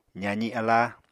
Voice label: "zaazi aláa", Apatani